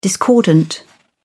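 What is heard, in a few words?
"discordant", English, British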